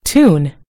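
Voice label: "tune", American English; gcompris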